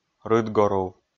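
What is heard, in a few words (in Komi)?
"рытгорув", Komi, male; kpv audio dict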